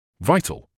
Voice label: "vital", medical English